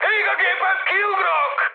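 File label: Hungarian on fire mood high, speech; War Thunder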